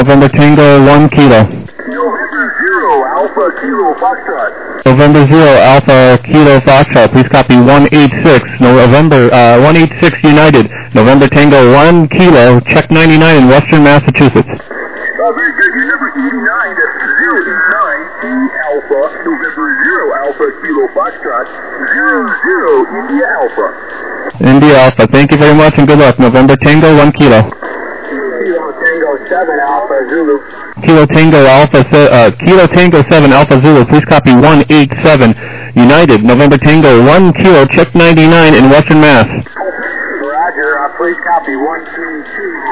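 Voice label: upper sideband 21MHz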